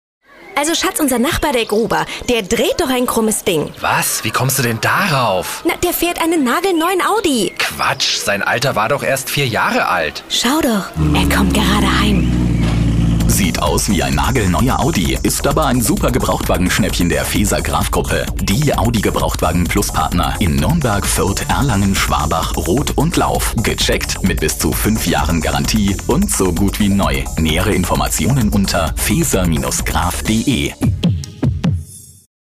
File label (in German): Dynamisch; Fremdtake